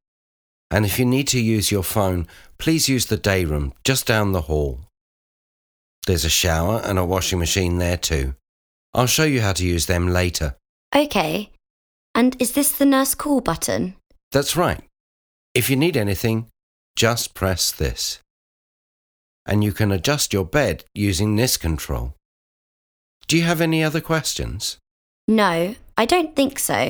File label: Conversation; Bedside Manner Beginner